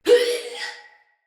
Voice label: Death Finisher, Male; Battle; Barks; Dialog; Sinecure Banneret

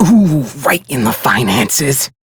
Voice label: Crew Gun Kill Fighter, Male; Warframe; Railjack; Perrin Sequence

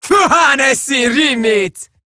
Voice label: Player Spotted, Female

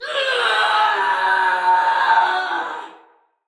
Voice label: Battle Cry, Male, en; Barks; Dialog; Sinecure Banneret

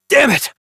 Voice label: Fail Interjections, Adult, en; Warframe; Duviri; Conservation

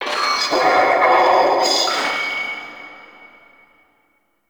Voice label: Vocal Loudspeaker; Warframe; Duviri; Town Escape

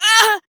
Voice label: Pain, Female; Warframe; Railjack; Cephalon Suda

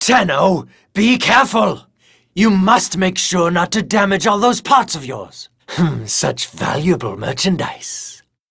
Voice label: Taunt Neutral

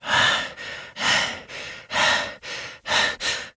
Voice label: Operator Voice; Warframe; Chimera

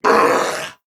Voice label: Attack Male, en; Dialog; Barks; Battle; Corpus Venus; Warframe; CorpusVenusMaleB

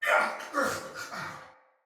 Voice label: Death Soldier Male; Sinecure Soldier Male A

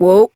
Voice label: Horse Stop Walk Adult; Duviri

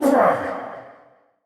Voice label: Pain Spider, en; Mendicant Wazard

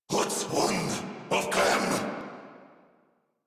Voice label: Player Spotted Corrupted Grineer Male

